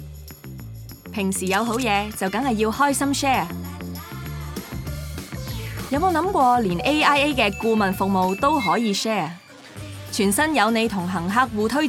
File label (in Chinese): 粵語女聲; AIA DAYDAYREFER